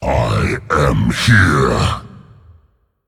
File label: Male Demon Intro